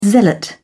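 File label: "zealot", British English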